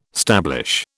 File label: "stablish", British